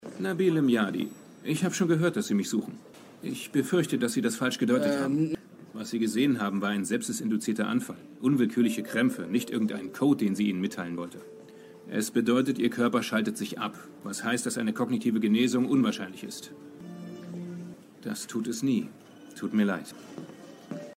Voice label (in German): Rolle Synchron Ernst